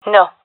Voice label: negative, gendarmerie fem epic

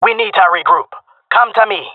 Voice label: request regroup, jungle male; vo; agents; Source Model Packs; Counter Strike Model Pack; CS2

